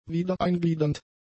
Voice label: "wiedereingliedernd", German; adjectives

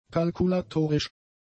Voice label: "kalkulatorisch", German; adjectives

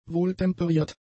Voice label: "wohltemperiert", German; adjectives

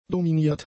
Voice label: "dominiert", German; adjectives

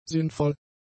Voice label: "sinnvoll", german; adjectives

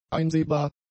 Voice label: "einsehbar", german; adjectives

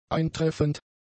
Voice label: "eintreffend", german; adjectives

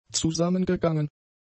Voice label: "zusammengegangen", German; adjectives

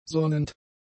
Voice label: "sonnend", german; adjectives

